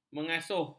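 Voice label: "mengasuh", Malay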